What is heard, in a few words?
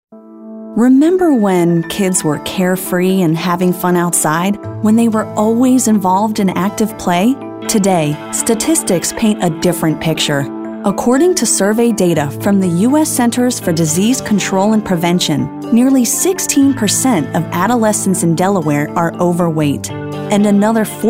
Concerned